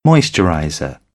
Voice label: "moisturizer", British; ɚ - əɹ; English